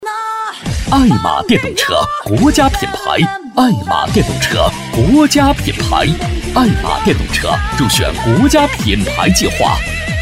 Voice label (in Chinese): 促销 爱玛电动车